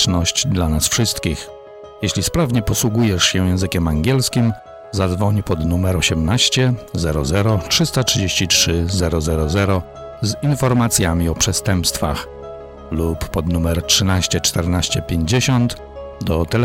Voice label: Polish Crime Stoppers; Polski